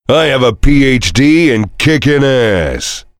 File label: heavy cheers; tf; duke